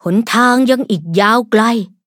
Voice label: level up, th; Cookie Run

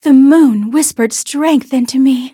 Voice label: level up en; Cookie Run

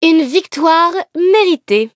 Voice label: victory, fr

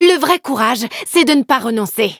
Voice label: defeat, fr; Cookie Run

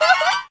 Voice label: slide woman

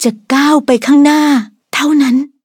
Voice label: level up, th; Cookie Run

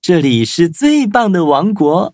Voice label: levelup zh-hant; cookierun

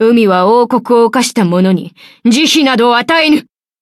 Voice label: upgrade, ja; Cookie Run

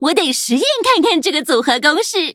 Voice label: loading zh-hant; cookie run

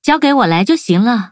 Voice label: upgrade, zh-hant; Cookie Run

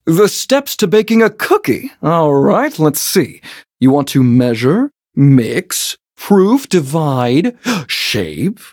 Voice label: touch, en; cookie run

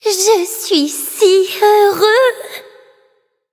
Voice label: levelup fr; cookie run

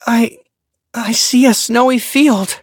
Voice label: defeat, en; Cookie Run

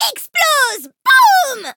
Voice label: loading fr; Cookie Run

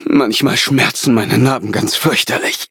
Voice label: loading, de; Cookie Run

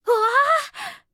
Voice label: upgrade, ja; cookierunwiki

